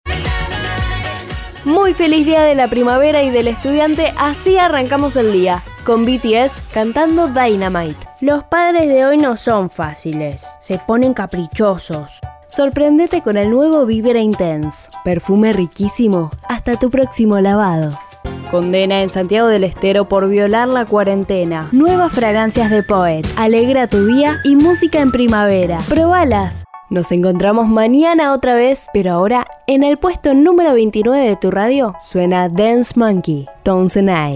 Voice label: Comercial Juvenil Dulce, voces femeninas

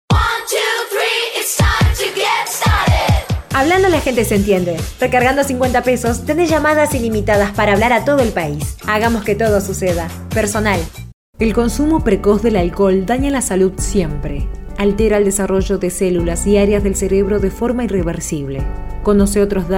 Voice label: Versatil Neutro Estilo

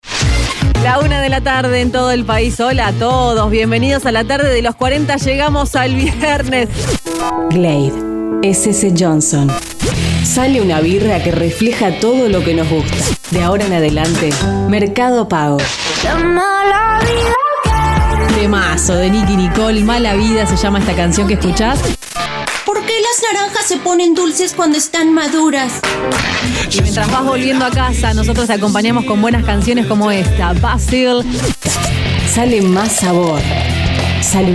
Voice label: Medios Vendedora Estilo, voces femeninas

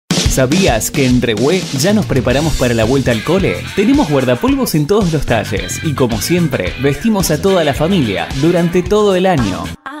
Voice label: Medios Vendedor Dinamico, voces masculinas

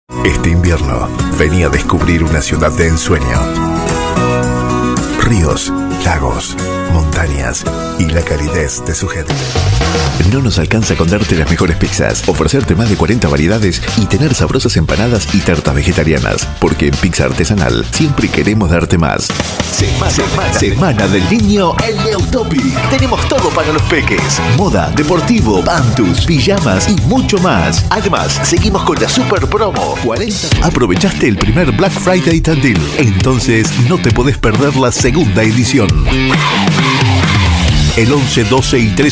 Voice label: Graves Medios Versatil, voces masculinas

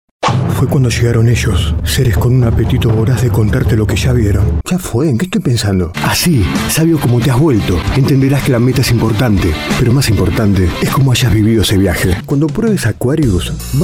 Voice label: Medios Dinamica Vendedor, voces masculinas

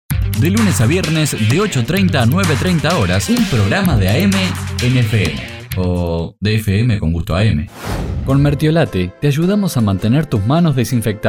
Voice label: Medios Cordial Vendedor, voces masculinas

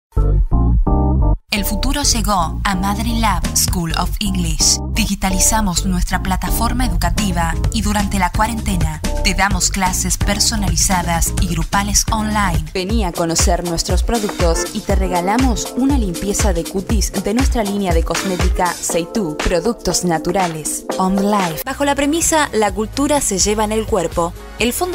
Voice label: Jovial Amena Institucional, voces femeninas

